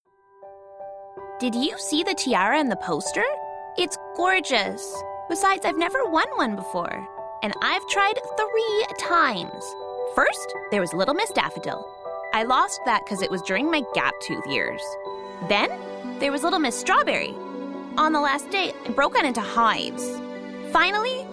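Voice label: US Animation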